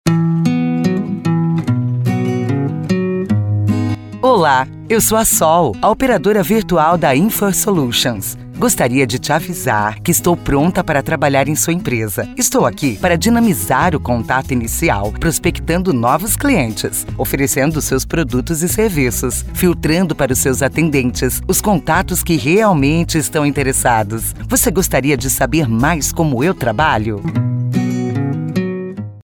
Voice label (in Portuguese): voz feminina